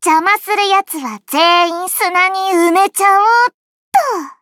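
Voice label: start, Japanese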